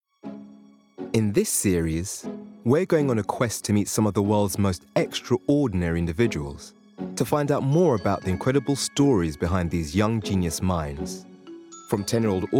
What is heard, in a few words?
Narration; regional accents